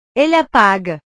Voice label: "ele apaga", portugues

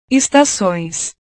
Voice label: "estacoes", portugues

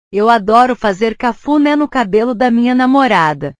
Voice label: "cafune", portugues

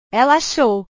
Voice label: "ela achou", portugues; verbos

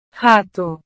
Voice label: "rato", portugues

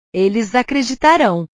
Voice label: "eles acreditarao", portugues

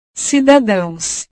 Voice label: "cidadaos", portugues

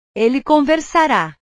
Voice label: "ele conversara", portugues; portugues/verbos

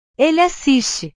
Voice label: "ele assiste", portugues

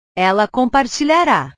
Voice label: "ela compartilhara", portugues; portugues/verbos

